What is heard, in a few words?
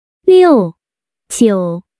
6-9 chinese, Китайские числа